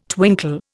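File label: "twinkle", Indian English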